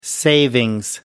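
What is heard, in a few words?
"savings", English, British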